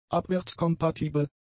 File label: "abwärtskompatibel", german; adjectives